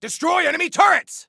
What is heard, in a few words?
Attack Turrets, Soldier Male; Soldier Male 01